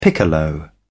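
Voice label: "piccolo", British English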